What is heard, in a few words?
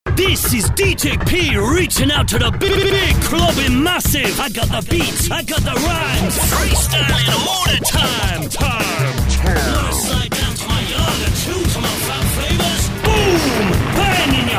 club DJ spoof